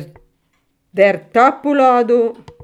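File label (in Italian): "tagliere", Italian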